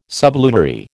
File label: "sublunary", American